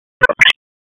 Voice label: Police Tac, p25; Raleigh; Downtown District Tac 1; wake